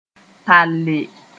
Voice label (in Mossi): "talle", moore